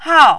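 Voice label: "hao", fourth tone, Mandarin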